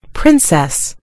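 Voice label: "princess", British English; gcompris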